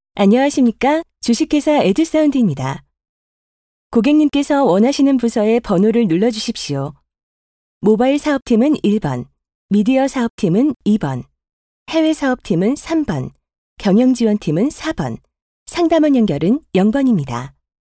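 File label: Korean female; ARS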